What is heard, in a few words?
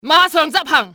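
"execute", cantonese, female; XBOX; enemy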